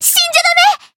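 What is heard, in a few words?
heal, Japanese; ilulu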